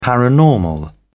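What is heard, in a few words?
"paranormal", British English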